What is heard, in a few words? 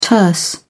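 "terse", English, British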